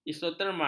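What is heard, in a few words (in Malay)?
"isoterma", Malay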